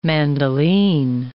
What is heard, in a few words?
"mandoline", English